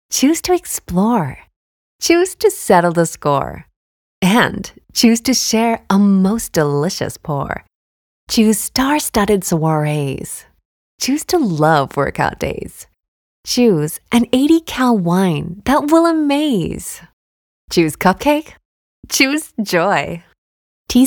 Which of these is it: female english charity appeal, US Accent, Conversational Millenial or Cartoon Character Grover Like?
US Accent